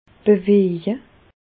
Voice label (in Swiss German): "beweje", Bas Rhin; medecine; bouger